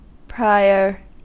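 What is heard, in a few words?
"prior", American English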